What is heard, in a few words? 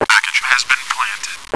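bomb planted, radio; CS ForKids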